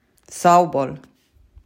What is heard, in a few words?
"Sağ ol!", Crimean Tatar